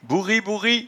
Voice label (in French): "bouribouri", patois jura